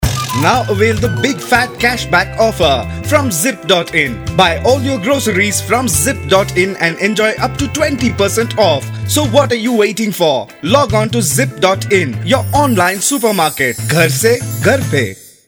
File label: Radio Jingle